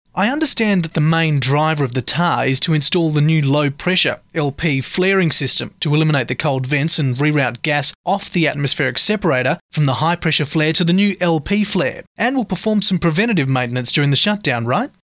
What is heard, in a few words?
Austrailian Accent; foreign languages